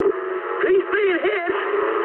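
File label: aircraft destroyed mood high, speech English; War Thunder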